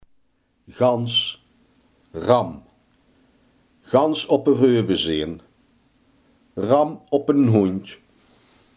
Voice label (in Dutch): "volledig", limburgse